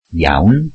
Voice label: "very", Welsh